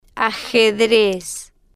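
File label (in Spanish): "ajedrez", Spanish, female, slow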